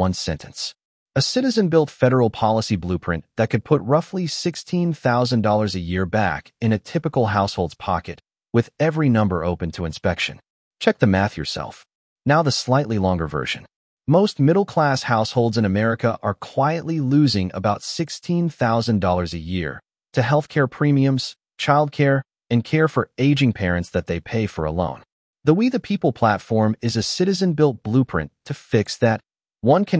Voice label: audio trailer